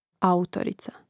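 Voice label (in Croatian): "autorica", Croatian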